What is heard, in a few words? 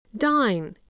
"dine", english spelling